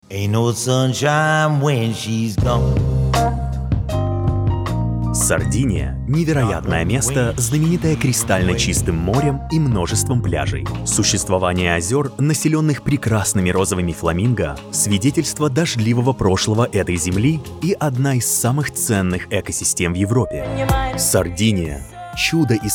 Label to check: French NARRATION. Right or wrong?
Wrong